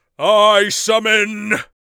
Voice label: i summon, Mage Voice Pack

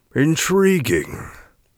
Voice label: inquisitive, Mage Voice; Mage Voice Pack

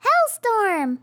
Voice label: hellstorm, RPG Voice; RPG Voice Starter Pack

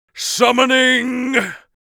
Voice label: summoning, mage voice; Mage Voice Pack

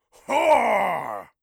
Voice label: joyous, orc voice; Orc Voice Pack

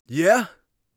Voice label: yes confirm, Warrior Voice; Warrior Voice Pack

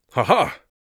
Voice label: amused, mage voice; Mage Voice Pack

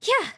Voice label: attack, RPG Voice; RPG Voice Starter Pack

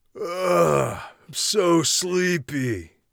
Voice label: sleepy, Warrior Voice; Warrior Voice Pack

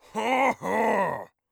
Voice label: thankful, orc voice; Orc Voice Pack

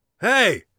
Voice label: hello, Warrior Voice; Warrior Voice Pack

